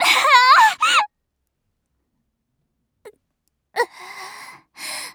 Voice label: die, Voice Line, JPN; Eternal Return; Tia